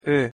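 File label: "o pottos", Hungarian pronunciation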